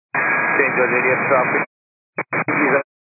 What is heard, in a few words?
122.925 MHz; scanner radio